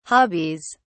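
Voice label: "hobbies", British English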